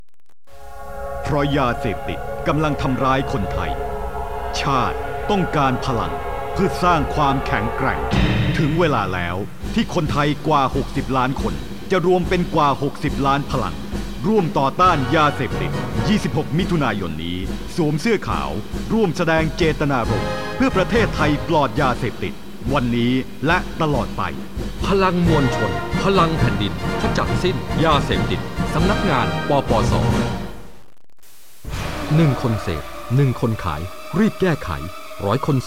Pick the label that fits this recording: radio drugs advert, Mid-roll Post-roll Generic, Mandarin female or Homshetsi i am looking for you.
radio drugs advert